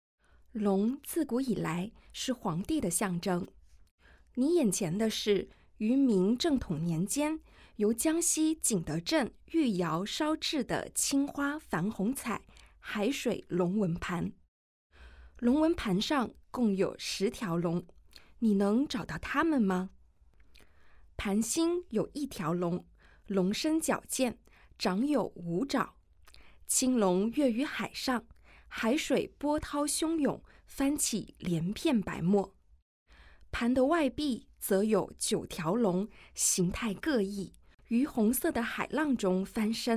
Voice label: Mandarin; audio guide